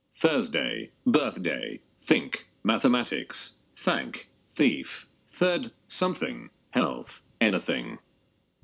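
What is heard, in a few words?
th clear British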